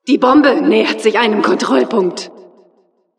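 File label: Announcer cart attacker warning, German; "Die Bombe nähert sich einem Kontrollpunkt."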